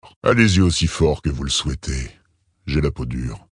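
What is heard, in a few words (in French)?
Male Flirt, voix françaises; Tauren Haut Roc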